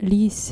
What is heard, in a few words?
"lease", dutch